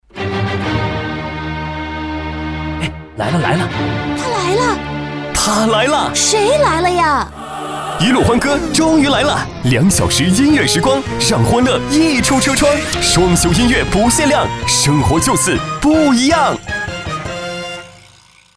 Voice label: 包装 片花; 一路欢歌

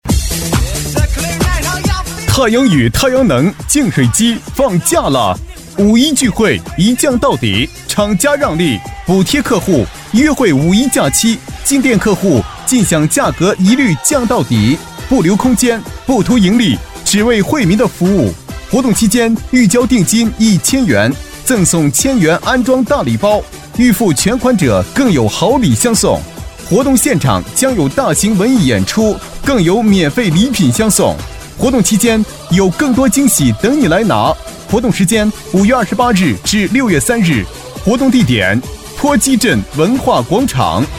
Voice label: 促销 太阳能男 激情浑厚

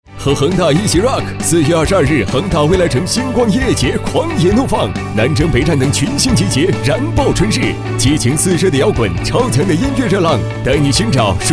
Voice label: 广告 年轻酷炫, 男; 音乐节

